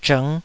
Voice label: "zhng5", taiwanese